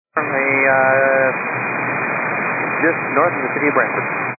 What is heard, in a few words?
122 925MHz, scanner radio